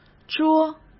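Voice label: "捉", Mandarin Chinese; hsk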